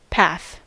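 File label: "path", US English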